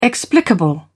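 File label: "explicable", English, British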